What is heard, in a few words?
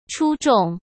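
"出众", Mandarin Chinese; chinese-flashcards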